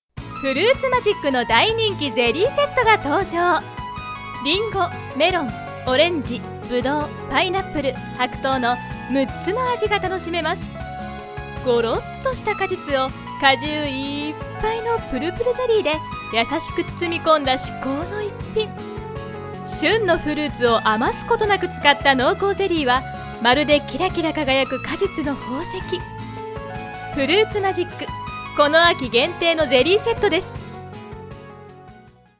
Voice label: narration